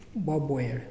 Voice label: "red", Muyuw; Lae Unitech